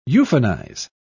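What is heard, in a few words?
"euphonize", British English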